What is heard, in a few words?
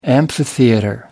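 "amphitheater", British English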